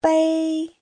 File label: "bei", Chinese